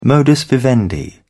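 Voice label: "modus vivendi", British English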